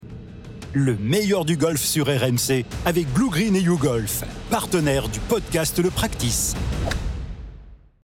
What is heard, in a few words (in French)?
RADIO